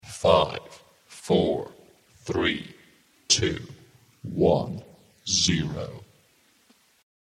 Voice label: Countdown British Male Voice; Royalty Free Military Music; human voice; spoken word